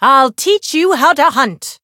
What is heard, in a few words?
chant